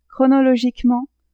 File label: "chronologiquement", French; adverbs